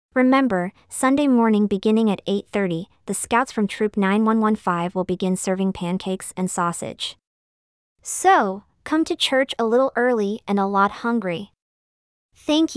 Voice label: annoucements